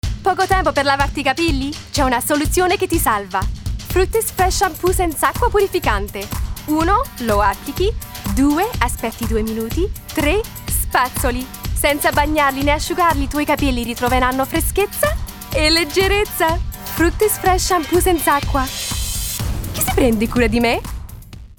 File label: italian